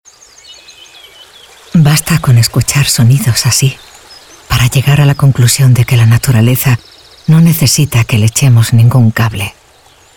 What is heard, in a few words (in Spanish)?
cuña; Fael